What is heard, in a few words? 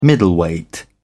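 "middleweight", British English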